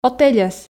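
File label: "отельӧс", Komi, female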